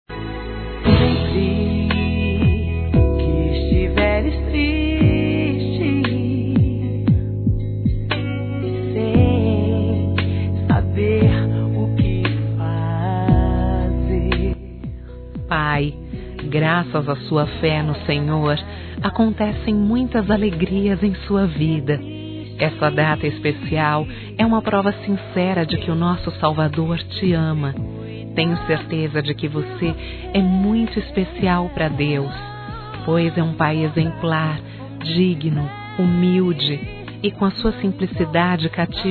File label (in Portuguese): EVANGÉLICO F; Telemensagens 2017